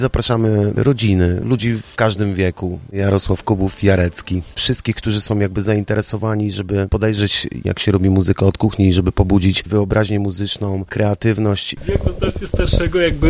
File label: rap ad